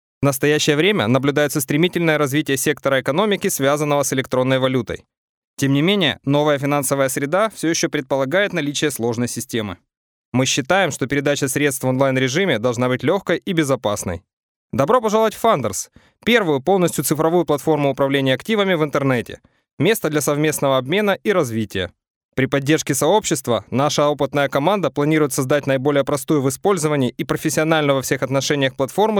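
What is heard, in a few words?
Russian Russia male sales; russian voice over talent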